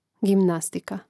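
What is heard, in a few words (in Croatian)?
"gimnastika", hrvatski; mreznik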